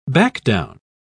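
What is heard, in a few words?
"backdown", British English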